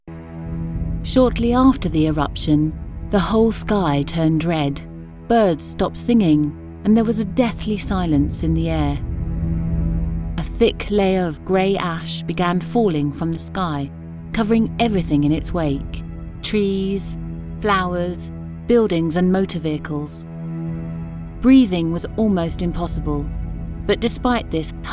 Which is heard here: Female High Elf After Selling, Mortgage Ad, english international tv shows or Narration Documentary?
Narration Documentary